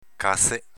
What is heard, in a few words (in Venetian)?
"caze!", Veneto